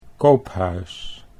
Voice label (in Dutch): "Koophuis", Dutch